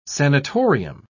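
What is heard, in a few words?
"sanitorium", British English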